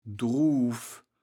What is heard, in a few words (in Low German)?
"Druuw", kaevels platt